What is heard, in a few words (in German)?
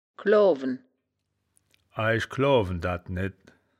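"gloawen", wittlicher woerterbuch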